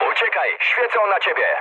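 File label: searchlite, mood low, Polish speech; War Thunder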